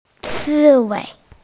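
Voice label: "ci4wei5", Chinese, female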